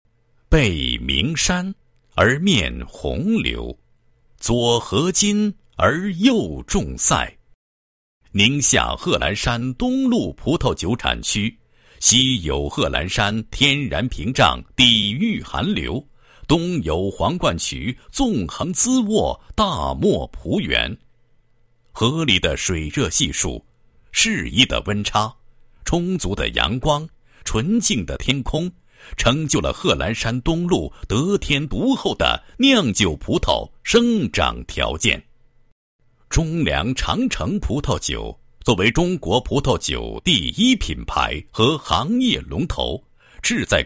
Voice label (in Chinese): 男 酒类 葡萄酒宣传简介, 国语男声配音